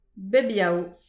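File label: "bep yaou", Breton; petit precis de prononciation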